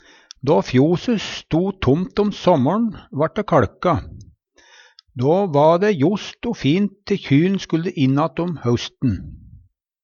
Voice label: kaLke, Numedalsmål

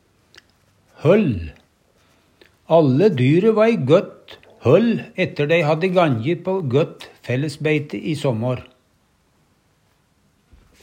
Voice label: "høll", Numedalsmål

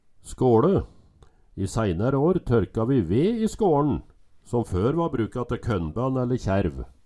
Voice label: "ska Le", Numedalsmål

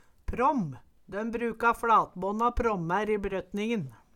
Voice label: "pråm", Numedalsmål

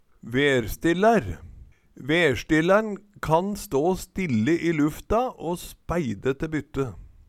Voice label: "verstillaer", Numedalsmål; Værstillær er og bruka.

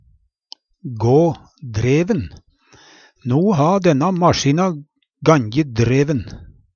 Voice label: ga dreven, Numedalsmål; Nei, no ha denna masjina ganje dreven.; bli øydelagt

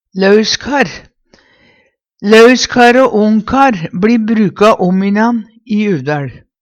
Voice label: lauskar, Numedalsmål; Ungkar og lauskar i tydinga ugift mann blir begge brukt i Uvdal.

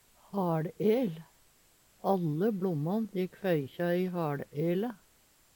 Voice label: haLel, Numedalsmål; Vêr og føre

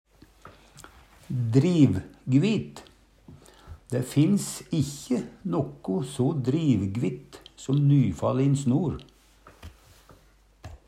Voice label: "drivgvit", Numedalsmål; Adjektiv

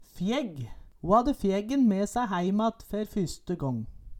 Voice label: fjegg, Numedalsmål

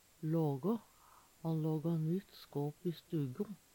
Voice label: laga, Numedalsmål; Han lågå nytt skåp i stugo.

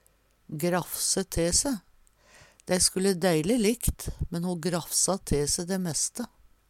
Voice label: graffse te se, Numedalsmål; Uttrykk

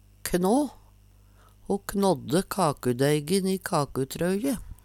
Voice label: kna, Numedalsmål

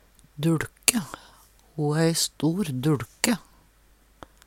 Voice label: "duLke", Numedalsmål

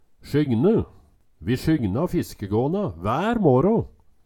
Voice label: "sjyngne", Numedalsmål

